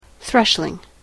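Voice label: "thrushling", English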